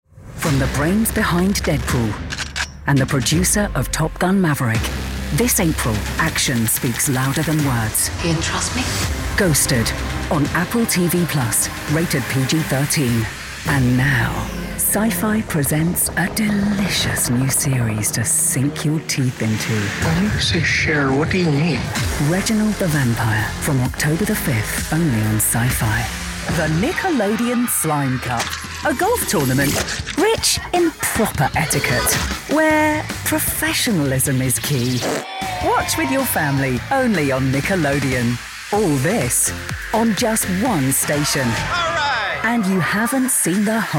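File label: promo and trailer, female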